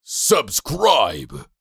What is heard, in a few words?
"Subscribe" social media male; shorts sound effects